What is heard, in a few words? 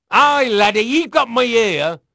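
English male; Pirates Of The Caribbean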